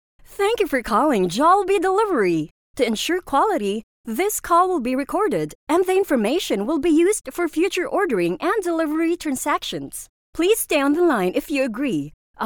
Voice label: english commercial